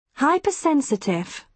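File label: "hypersensitive", British English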